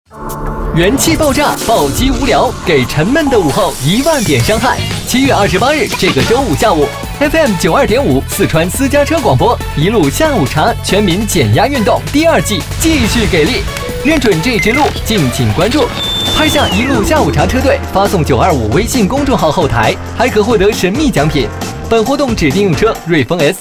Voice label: 男,电台广告

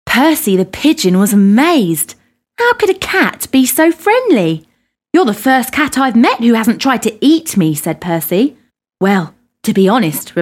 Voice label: 外籍女 多风格 Narration

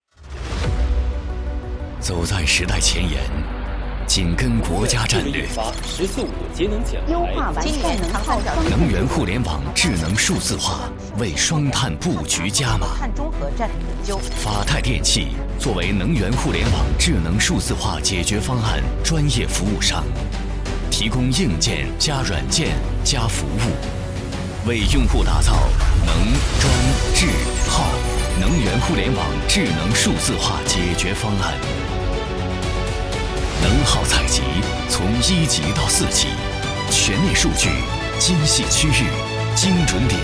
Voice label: 男 宣传 大气 浑厚; 法泰电器成片

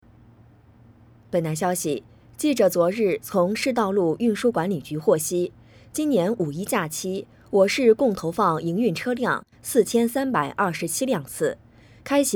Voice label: 女 新闻

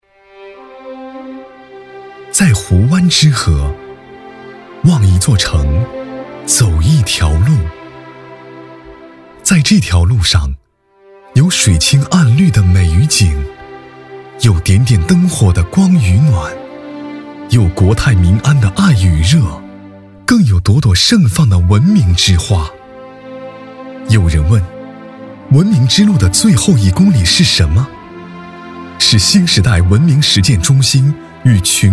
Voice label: 男 形象宣传 望一座城 走一条路; 在湖湾之核

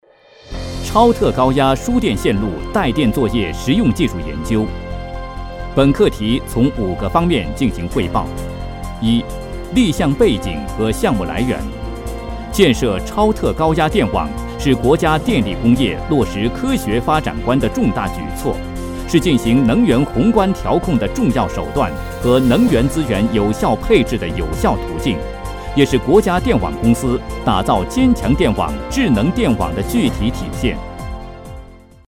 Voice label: 男 解说, 国语男声配音; 超特高压

